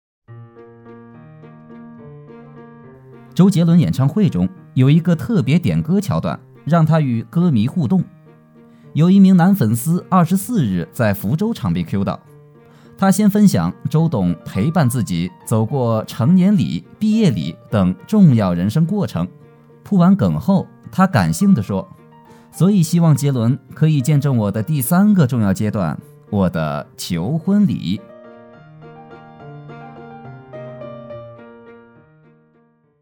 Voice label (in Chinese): 男 年轻活力-小故事